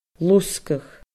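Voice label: "losgadh", Scottish Gaelic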